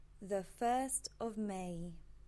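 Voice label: English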